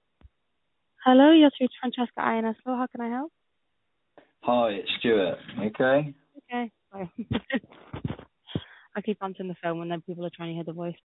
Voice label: call recording